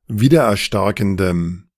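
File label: "wiedererstarkendem", German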